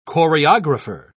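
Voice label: "choreographer", British English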